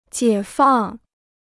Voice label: "解放", Chinese

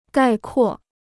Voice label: "概括", Chinese

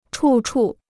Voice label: "处处", Chinese; chù chù; everywhere; in all respects

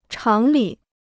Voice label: "常理", Chinese

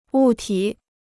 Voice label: "物体", Chinese

